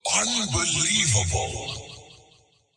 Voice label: Voice Unbelievable